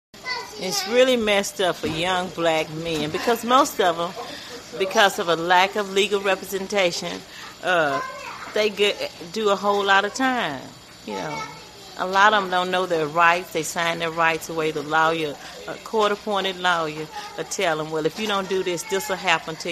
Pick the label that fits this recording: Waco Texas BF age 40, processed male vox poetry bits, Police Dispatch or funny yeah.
Waco Texas BF age 40